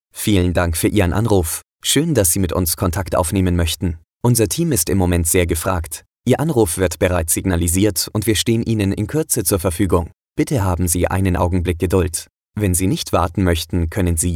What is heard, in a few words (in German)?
Begruessung (m)